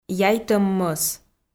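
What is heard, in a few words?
"яйтӧм мӧс", Komi, female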